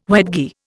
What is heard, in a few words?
"wedgie", Indian